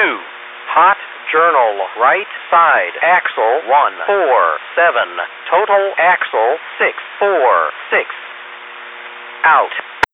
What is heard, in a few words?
defect detector; BNSF Aurora Sub MP 289.2